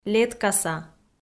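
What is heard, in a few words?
"леткаса", Komi, female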